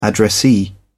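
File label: "addressee", British English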